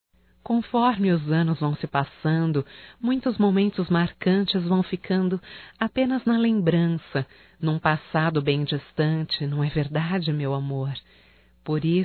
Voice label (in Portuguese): ROMANTICA(F), Românticas, fem